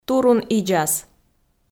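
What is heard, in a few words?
"турун-идзас", Komi, female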